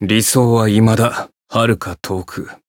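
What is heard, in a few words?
Voice Japanese; claude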